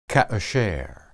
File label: "kasher", Hebrew